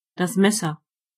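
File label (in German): "knife", German; gcompris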